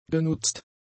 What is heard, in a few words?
"genutzt", German; adjectives